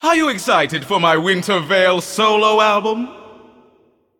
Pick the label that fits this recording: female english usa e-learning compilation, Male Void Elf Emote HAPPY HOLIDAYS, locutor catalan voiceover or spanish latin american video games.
Male Void Elf Emote HAPPY HOLIDAYS